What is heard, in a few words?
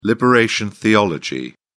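"liberation theology", British English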